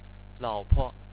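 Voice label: "lao3po", Chinese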